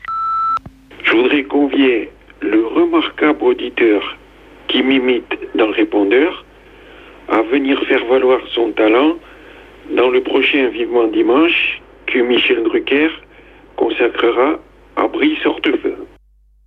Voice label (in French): repondeur; Immitateur de Sarkozy chez Drucker